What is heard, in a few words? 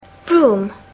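"room", English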